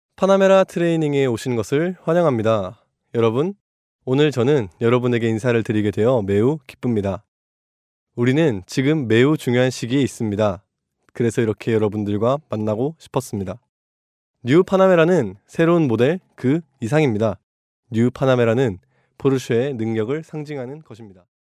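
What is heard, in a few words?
Korean, male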